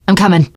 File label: im with you, teengirl; survivor voice